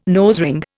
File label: "nosering", Indian